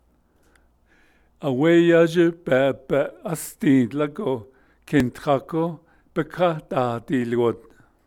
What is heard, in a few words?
navajo particle; They were out of the baby's milk so they to